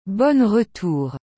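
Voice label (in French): "Bonne retour", French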